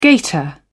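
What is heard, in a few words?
"gator", British English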